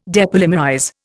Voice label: "depolymerize", Indian